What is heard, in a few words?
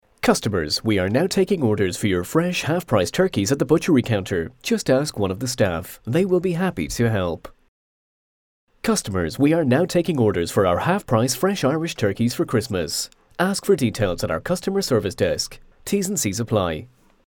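English, Irish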